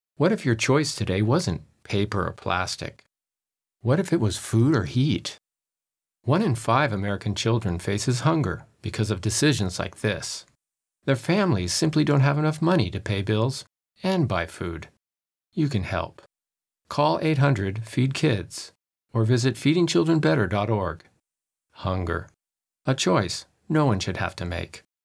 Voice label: foreigner